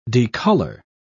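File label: "decolor", British English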